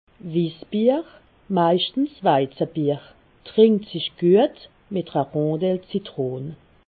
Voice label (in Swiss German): "wissbier", alsace